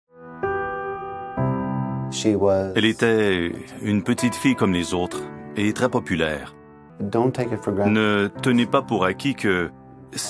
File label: voice acting